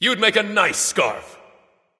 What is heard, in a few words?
taunt directed; ratatoskr; smite; Ullr